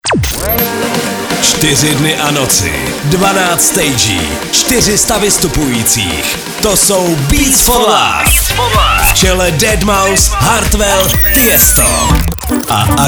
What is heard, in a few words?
liner; festivalu beats for love v ostrave